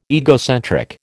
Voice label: "egocentric", American